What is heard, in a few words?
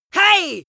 angry "hey" male